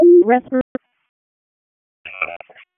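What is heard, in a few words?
Public Safety Tactical, p25; wake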